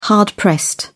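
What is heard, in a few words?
"hard-pressed", British English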